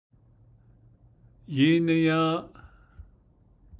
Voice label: navajo; eat it 2nd person singular; yíníyą́ą́’; Navajo Adverb Lexicon